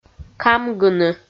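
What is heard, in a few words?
"камгыны", Komi, female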